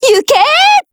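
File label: ATTACK Japanese; RPD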